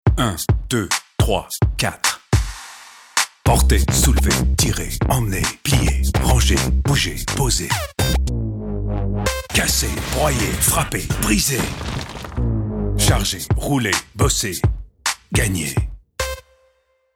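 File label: Voix Off voice over, bilingue Américain Français; Ford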